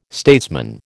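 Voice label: "statesman", American English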